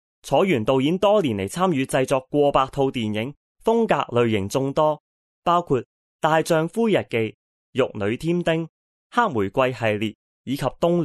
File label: male cantonese voice over talent narrative